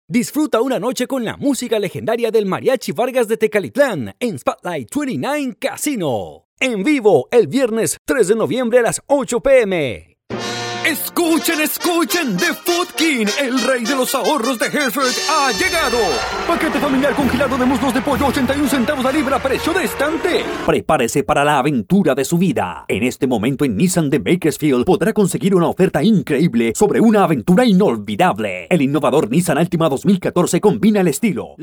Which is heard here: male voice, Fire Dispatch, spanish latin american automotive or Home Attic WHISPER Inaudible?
spanish latin american automotive